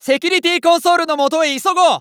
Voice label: Announce 2nd Safe Area Ready, JPN; Eternal Return